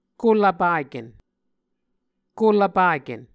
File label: "kolvpaken", Muscogee; Mvskoke Words with Voicing Consonants; A Dictionary of Creek/Muskogee; SIL International